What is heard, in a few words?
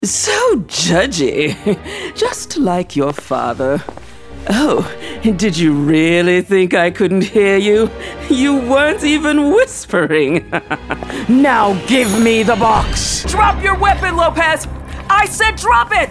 Animation Video Games, female voiceover